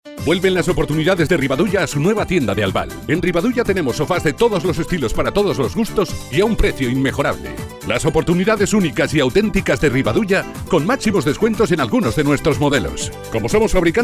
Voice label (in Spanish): comercial